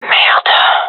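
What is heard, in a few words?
sorry, gendarmerie female; vo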